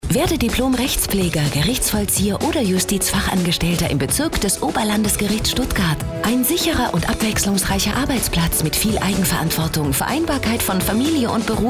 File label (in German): Werbespot; Hitradio antenne1